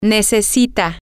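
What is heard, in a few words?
she needs, Spanish, female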